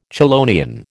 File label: "chelonian", American